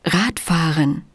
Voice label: german race cycle; samsung YP-U5; Audio User Interface